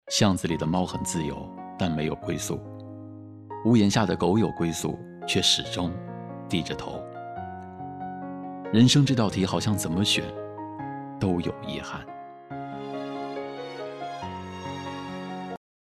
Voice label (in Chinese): 情绪片, 吐字清晰，声音灵动多变，擅长内心独白配音，风格俏皮灵动